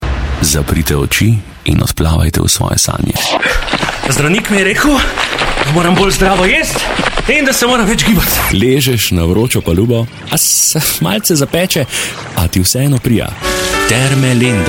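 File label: Slovenian Commercial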